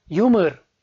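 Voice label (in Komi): "юмыр" Komi, male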